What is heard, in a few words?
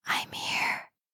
asmr girl i'm here; asmr ringtones